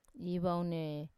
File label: "ivaune", I wake up, wamesa